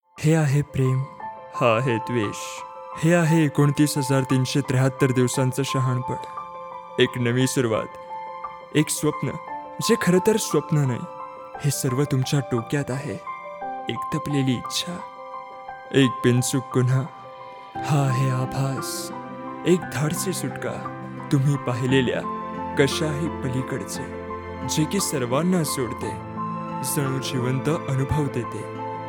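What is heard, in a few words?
Marathi M Advert, male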